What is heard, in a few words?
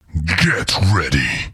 Get Ready, Announcer; liblast